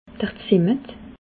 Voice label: "zimmet", alsace

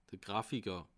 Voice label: "grafiker", alsace

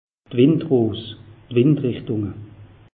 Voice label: "windros", alsace; meteo

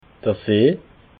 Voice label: "see", alsace

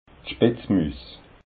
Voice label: "spitzmuus", alsace

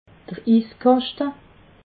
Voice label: "iskaschte", alsace

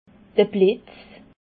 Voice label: "blitz", alsace

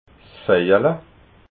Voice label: "veijala", alsace

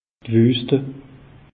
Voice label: "wuste", alsace; meteo

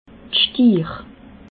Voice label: "stiir", alsace

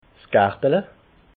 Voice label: "gartala", alsace

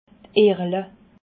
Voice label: "erle", alsace

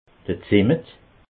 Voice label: "zimmet", alsace

